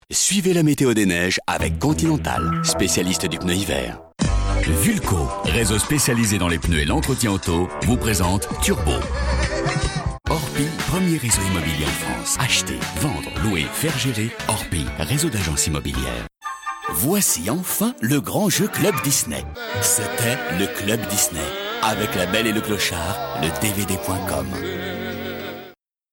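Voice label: billboard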